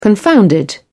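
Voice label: "confounded", British English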